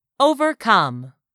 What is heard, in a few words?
"overcome", English; 3000 words